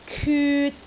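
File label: "kyut3", Cantonese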